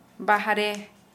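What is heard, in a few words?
Santali female outside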